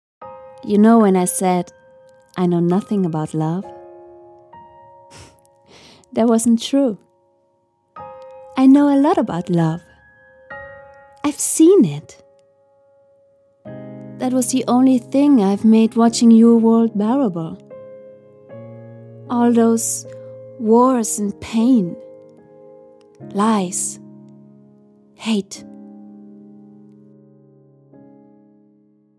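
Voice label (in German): American English, Schauspiel Lebendig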